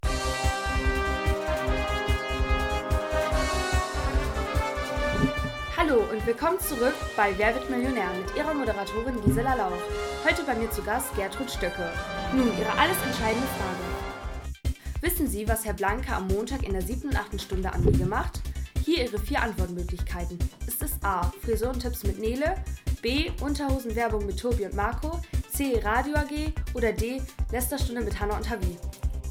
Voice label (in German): Werbung